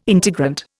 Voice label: "integrant", Indian